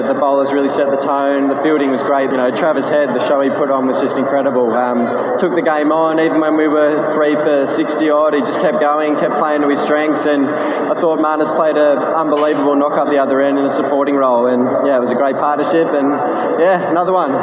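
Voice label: English; newsflash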